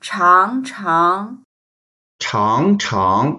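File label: "chang2chang2", Mandarin Chinese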